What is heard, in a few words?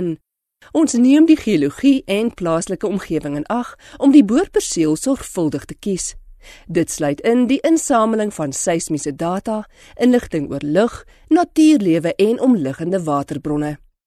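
Afrikaans F Instructional Video, female; voice over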